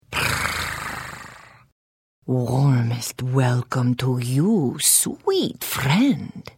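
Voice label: Hello, Khajiit female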